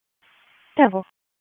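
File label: Police District Dispatch, p25; wake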